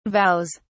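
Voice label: "vows", British English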